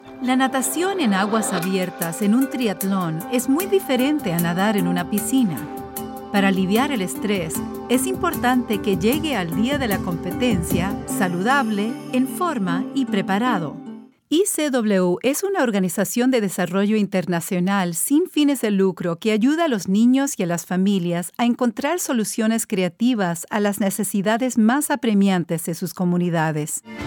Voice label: Voice Talent Corporate Narration Spanish